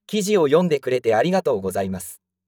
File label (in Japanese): 男性